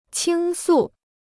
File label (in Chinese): "倾诉", Chinese